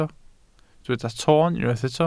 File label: "toon", Welsh